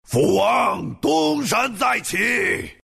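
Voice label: respawn, chinese; dota2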